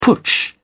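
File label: "putsch", British English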